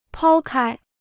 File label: "抛开", Chinese